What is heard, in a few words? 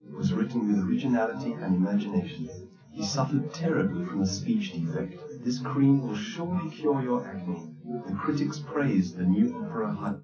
male; SiSEC11; underdetermined